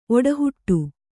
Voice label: "ಓಟಹೊಡೆ", Kannada